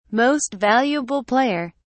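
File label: "most valuable player", British English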